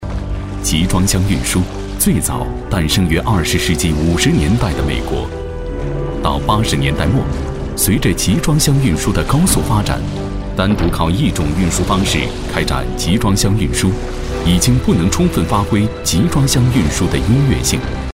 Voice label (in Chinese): 叙述式国企; 中集集团